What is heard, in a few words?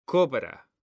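"COBRA", Spanish, male; mekanta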